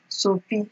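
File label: "soapy", Nigerian Pidgin, female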